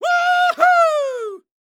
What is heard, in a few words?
Cartoon Loud Voice "Wah Hoo"; AdobeCC